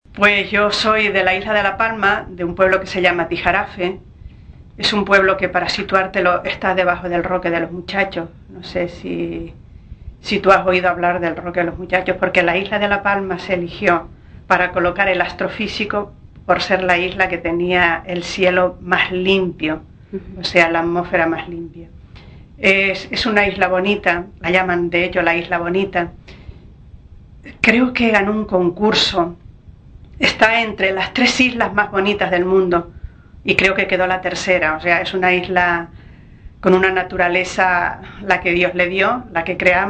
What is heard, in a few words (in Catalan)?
canario, variantes ling; Hablante de 55 años procedente de la Isla de la Palma; Grabación realizada por Eva Mª Morales